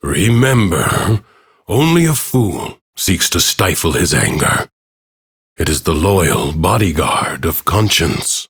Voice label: Ambient Bark Angry; Duviri; Teshin

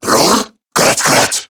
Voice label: Surprised, Male

